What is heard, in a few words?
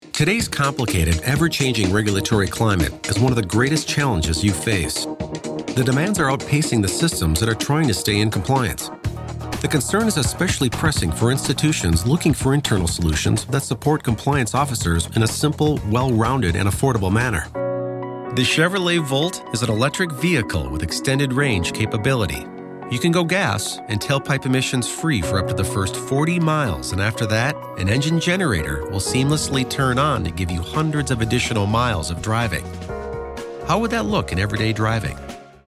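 American narration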